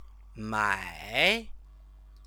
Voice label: "mai", Chinese; 买; buy; verb